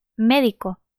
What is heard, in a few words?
"médico", Spanish